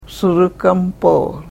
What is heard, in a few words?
"surukampoor", karuk